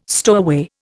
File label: "stowaway", Indian